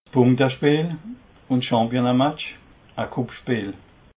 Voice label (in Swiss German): "punktespeel", alsace